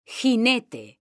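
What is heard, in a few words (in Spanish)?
"JINETE", female; mekanta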